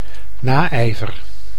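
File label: "naijver", Dutch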